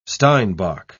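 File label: "steinbok", British English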